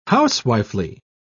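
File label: "housewifely", British English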